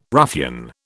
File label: "ruffian", British